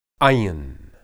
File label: "ayin", Hebrew